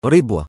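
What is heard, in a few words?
"رِبْوَة" Arabic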